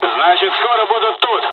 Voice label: dialog, dialogs russian; War Thunder